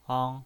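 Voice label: "ang", Mandarin Chinese, first tone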